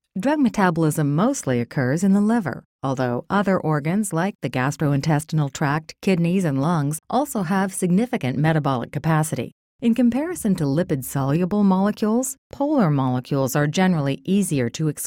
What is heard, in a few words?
Warm Conversational